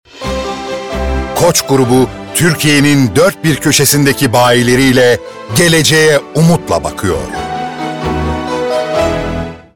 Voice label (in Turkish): reklam; koc